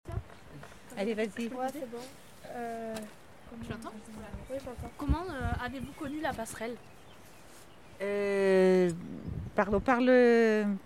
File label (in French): MICROTROTTOIR CHARENTE